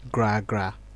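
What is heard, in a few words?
"gragra", Nigerian Pidgin, male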